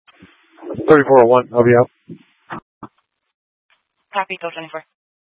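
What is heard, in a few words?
Incident Tactical, scanner audio recording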